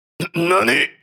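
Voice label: "What nani", anime villain character, japanese voice over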